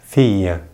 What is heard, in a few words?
"fille", French